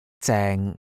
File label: "zeng6" Cantonese